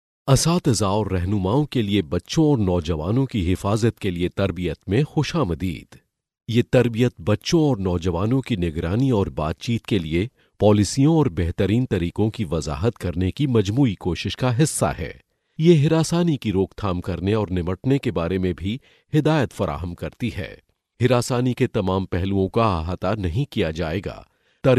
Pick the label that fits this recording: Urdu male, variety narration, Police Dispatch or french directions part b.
Urdu male